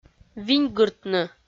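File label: "виньгыртны", Komi, female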